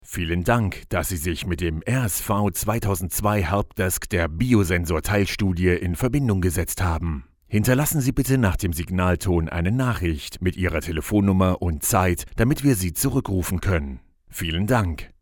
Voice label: Answering Machine Help Desk

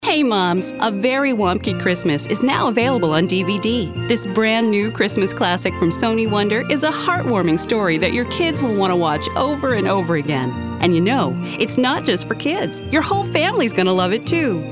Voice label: Mom Commercials

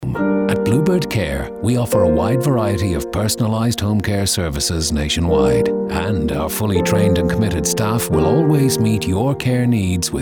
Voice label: commercial; voicesdotcom

